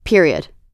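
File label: "period", American English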